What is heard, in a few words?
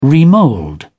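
"remould", English, British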